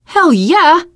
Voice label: nice job, teengirl; survivor voice